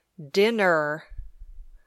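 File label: "dinner", English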